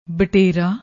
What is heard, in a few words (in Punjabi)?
"baterra", Punjabi